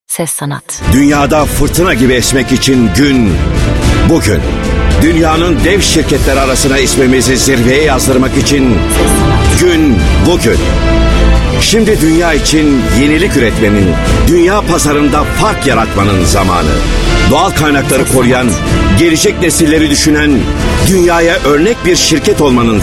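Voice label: Turkish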